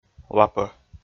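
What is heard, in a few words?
"лапӧ", Komi, male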